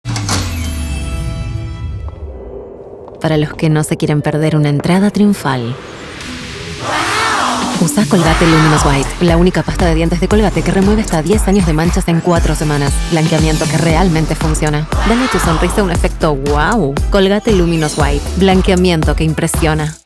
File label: spanish argentinian announcements, voice actor